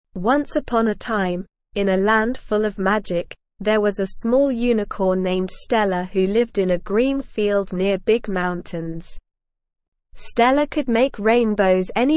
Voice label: ESL Short Stories for Kids Lower Intermediate SLOW Reading; The Rainbow Bridge